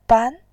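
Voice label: "ban", Mandarin Chinese, tone 2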